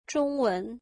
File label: Chinese, mandarin